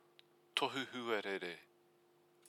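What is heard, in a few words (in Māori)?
"tohu huarere", Māori word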